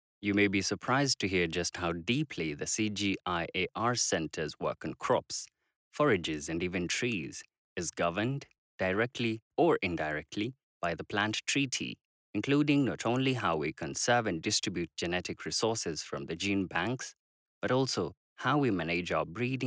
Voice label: Male English